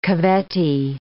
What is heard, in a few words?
"cavetti", English